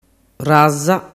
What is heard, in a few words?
"razza", dialettu